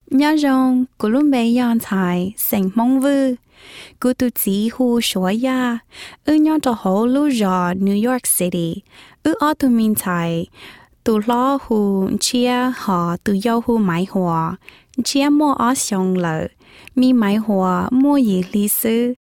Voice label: Hmong

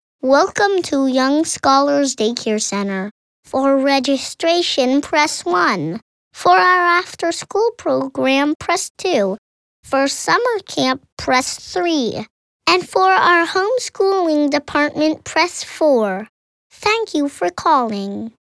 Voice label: Daycare Voicemail

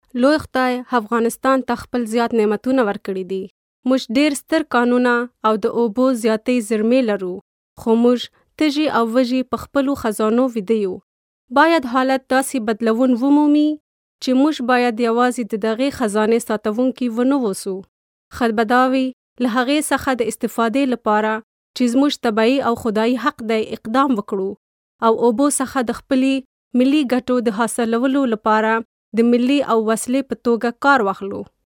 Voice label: PASHTO

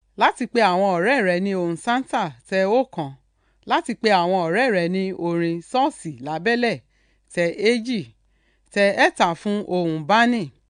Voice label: Yoruba

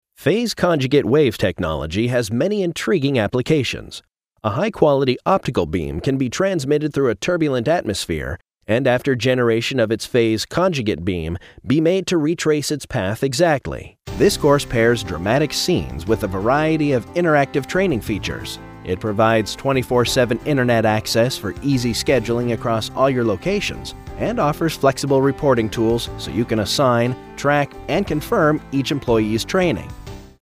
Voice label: US narration

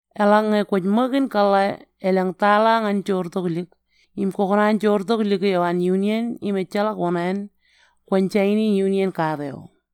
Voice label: Marshallese

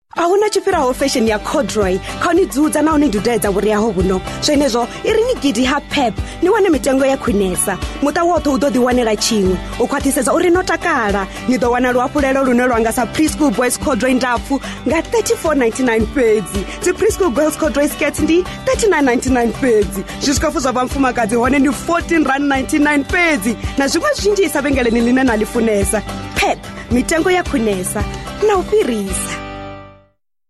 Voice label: Venda

